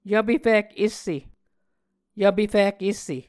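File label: "yvpe fik esse", Muscogee words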